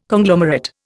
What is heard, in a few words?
"Conglomerate", Indian